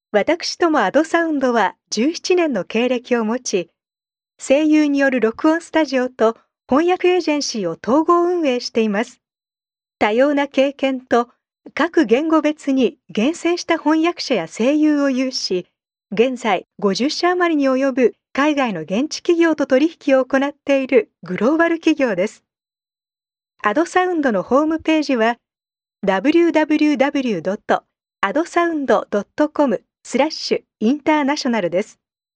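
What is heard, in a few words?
Japanese, female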